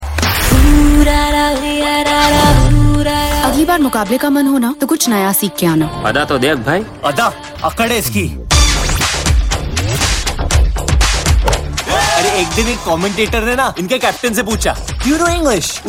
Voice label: Dialogue Ringtone; Street Dancer